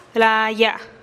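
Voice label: "laaya", Teotitlán del Valle Zapotec; mi diente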